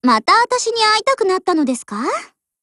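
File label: Japanese, Voice; GF Alarm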